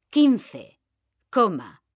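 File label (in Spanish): "quince coma", female; mekanta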